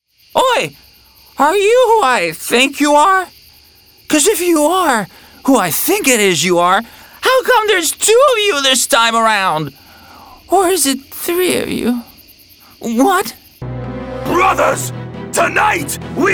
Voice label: Cartoon